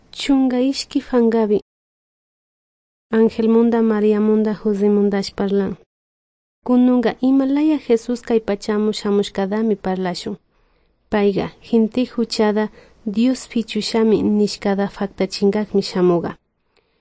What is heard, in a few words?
good news quichua de salasaca